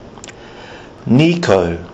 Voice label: "nikau", kiwi english

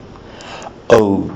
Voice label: ou as in pounamu, kiwi english

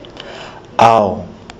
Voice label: ao as in taonga, kiwi english

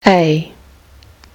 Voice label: vowel in mate, Kiwi English

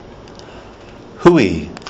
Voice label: "hui", kiwi english

